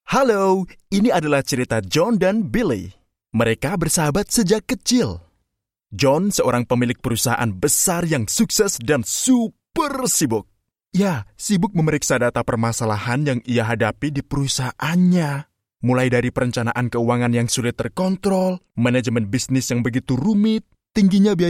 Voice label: Indonesian M Corporate